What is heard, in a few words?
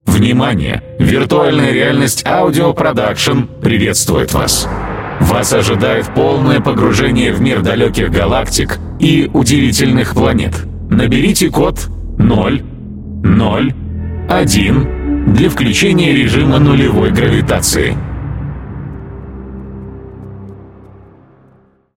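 robots male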